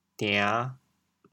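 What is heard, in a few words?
"dian5", Teochew, chaoyang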